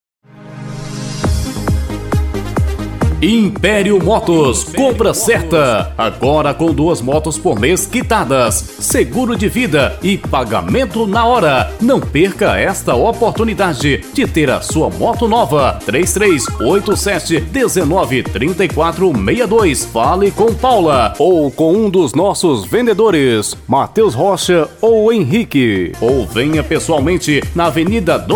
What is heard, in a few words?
Spot; imperio motos divisa